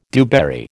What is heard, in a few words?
"dewberry", American